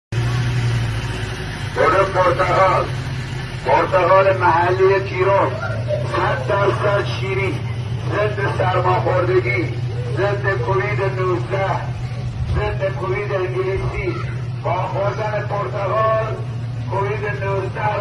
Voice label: salesman voice